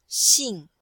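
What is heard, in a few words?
"xing4", Chinese, female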